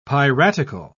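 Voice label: "piratical", British English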